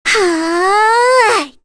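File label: Vox Casting, Korean; Kirze; kingsraid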